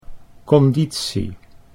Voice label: "conditie", Dutch